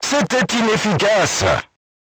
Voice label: voice message armor not pierce, crew tanks french

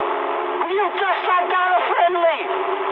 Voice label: eng player killed ally mood high, speech eng

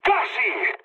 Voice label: bomb failure mood med; War Thunder

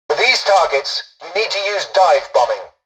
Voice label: tutorial dialogue, english; War Thunder

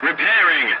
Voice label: voice message repairing, english; War Thunder

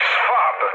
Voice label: enemy detected mood med, speech pol; War Thunder

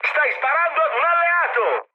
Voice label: player friendly fire mood high, speech it

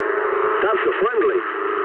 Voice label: eng player friendly fire mood low, speech eng

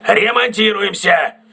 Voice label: voice message repairing, crew ships russian

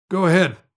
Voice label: Hear It, Speech; Civ5; Washington; Neutral

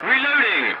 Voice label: voice message reloading, english; dialogs; War Thunder

